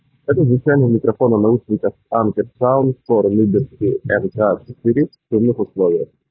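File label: anker soundcore liberty 4 nc street; na ulice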